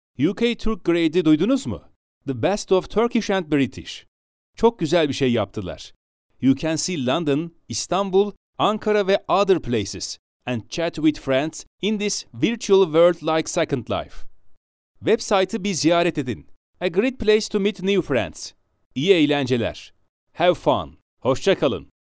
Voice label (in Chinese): 土耳其语 男 土耳其英语